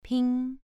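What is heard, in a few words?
"ping", Mandarin Chinese syllable, second tone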